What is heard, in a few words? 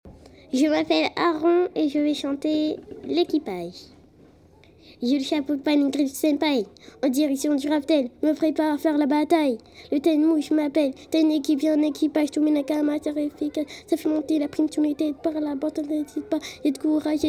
rap solo